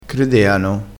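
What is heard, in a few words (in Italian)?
"credeano", dialettale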